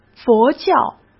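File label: "佛教", Mandarin Chinese; chinese flashcards; hsk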